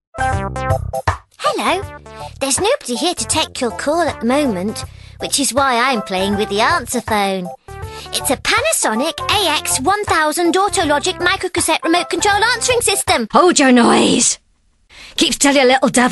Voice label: eng american girl

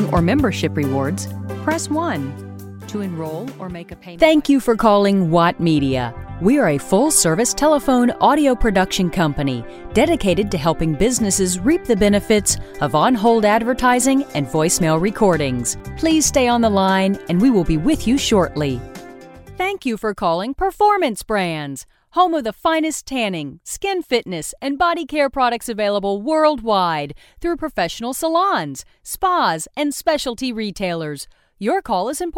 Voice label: american female

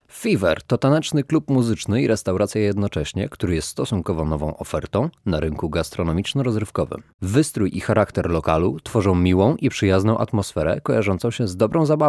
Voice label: polish male

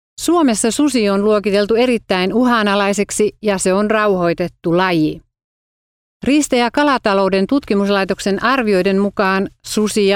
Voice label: finnish female

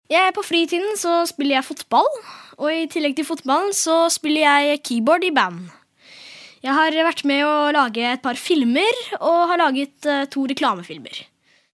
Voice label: norwegian boy

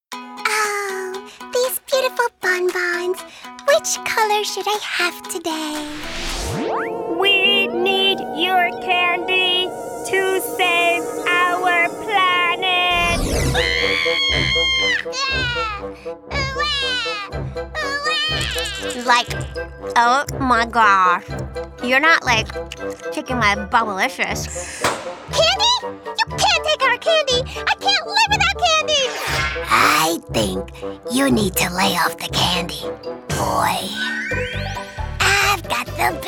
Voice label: spanish girl

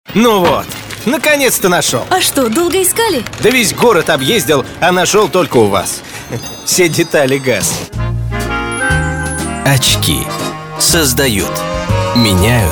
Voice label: russian male

